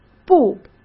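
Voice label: "布", Mandarin Chinese; hsk; chinese flashcards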